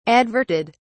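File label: "adverted", British English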